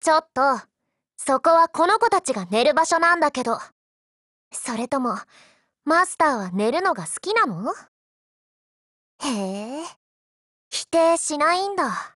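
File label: dialogue, Japanese; Px4Storm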